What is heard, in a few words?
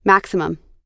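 "maximum", American English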